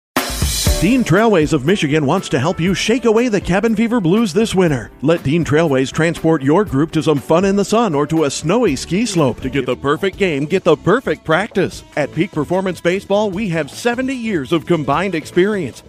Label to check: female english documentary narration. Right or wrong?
Wrong